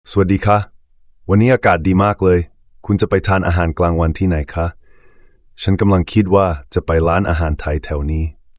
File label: Thai; MiniMax Speech